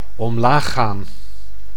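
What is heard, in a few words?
"omlaag gaan", Dutch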